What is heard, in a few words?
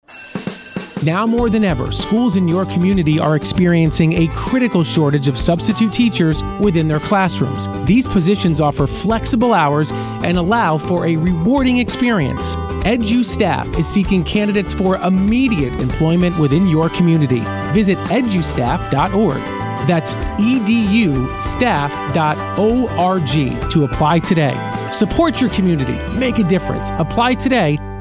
radio ad; edustaff; human resources